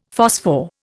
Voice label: "phosphor", Indian; Dictionary